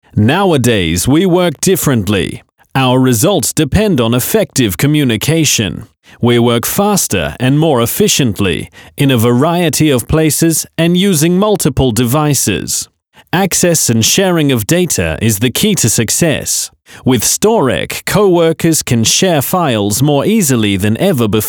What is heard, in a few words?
product explainer spot